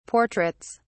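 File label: "portraits", British English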